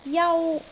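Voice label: "jau1", Cantonese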